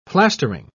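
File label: "plastering", British English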